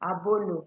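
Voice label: "abolo", Nigerian Pidgin, female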